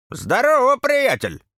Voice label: farmer greeting